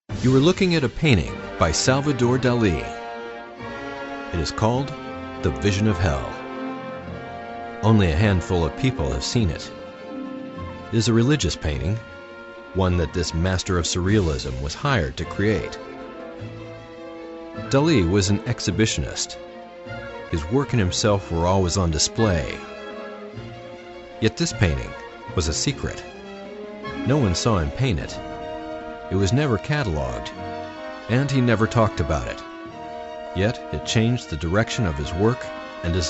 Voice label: Trailer; Dali